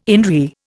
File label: "in re", Indian